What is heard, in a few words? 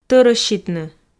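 "тӧрӧщитны", Komi, female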